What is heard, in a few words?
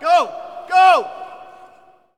Hockey Male Go; Hockey Vocal Sounds